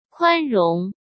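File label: "宽容", Mandarin Chinese; chinese-flashcards; hsk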